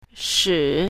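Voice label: "shi3", pinyin, south, female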